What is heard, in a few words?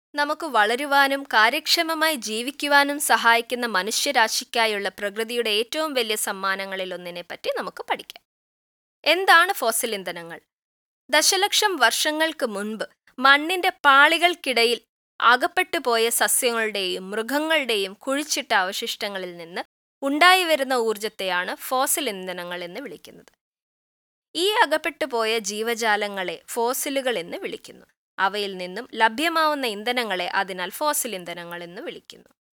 E Learning Malayalam